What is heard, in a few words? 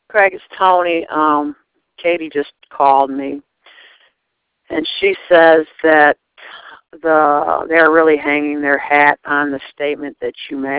voicemail